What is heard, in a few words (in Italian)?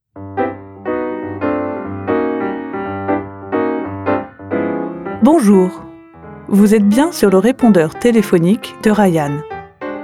madrelingua francese